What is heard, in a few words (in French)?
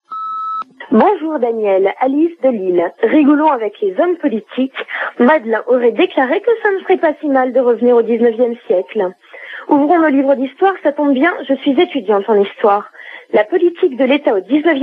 Manif retraites 19e siecle repondeur